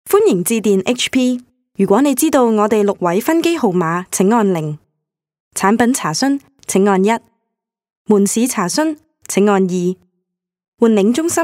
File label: Cantonese IVR